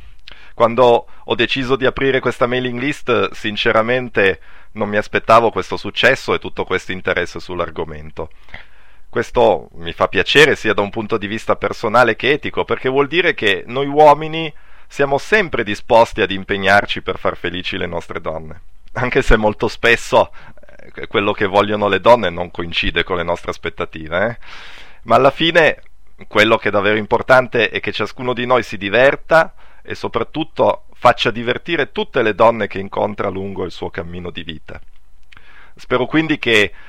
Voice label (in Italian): messaggio vocale